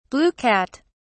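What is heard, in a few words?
"blue cat", British English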